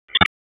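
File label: Raleigh Police North District Tactical; wake; p25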